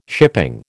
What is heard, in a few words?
"shipping", American